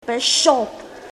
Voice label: bon appetit, klingon

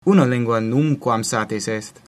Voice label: one language, latin

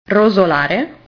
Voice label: "rosolare", Italian

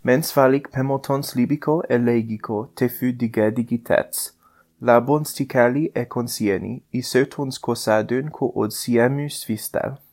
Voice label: udhr volapuk

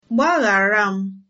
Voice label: sorry, igbo

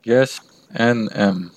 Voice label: i'm from, armenian western

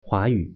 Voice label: "huayu", Mandarin

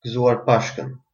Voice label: easter, albanian gheg

